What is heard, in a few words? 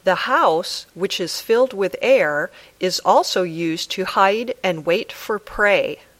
Dictation